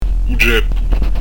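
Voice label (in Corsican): "u ceppu", Corsican; u dƷ'èpu; Son "adouci"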